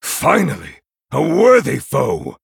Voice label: Male Night Elf Well Played

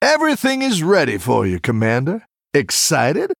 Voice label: Male Human New Game

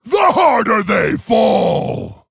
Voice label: Male Orc Attack

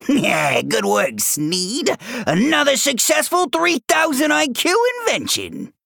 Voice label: Male Goblin; Sneed; Scabbs

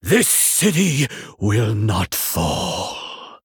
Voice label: Male Human Play

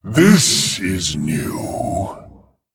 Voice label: Male Dragon Attack

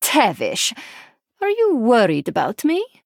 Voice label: Female Draenei

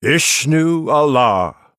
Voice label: Male Night Elf Greetings

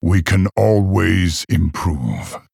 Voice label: Male Orc Oops

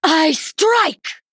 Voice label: Female Night Elf Attack

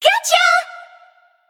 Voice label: Female Water Spirit Attack

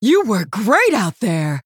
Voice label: Female Human Emote Well Played

